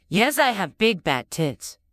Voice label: vocodes